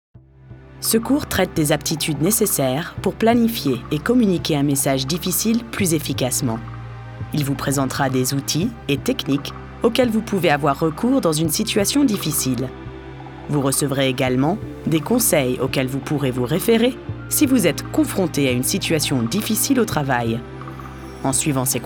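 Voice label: French e-learning; GoLocalise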